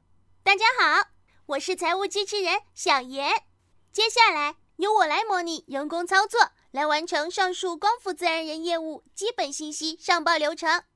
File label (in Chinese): 童声 可爱女童服务机器人